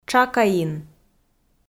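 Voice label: "тшакаин", Komi, female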